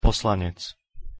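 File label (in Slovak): "poslanec", Slovak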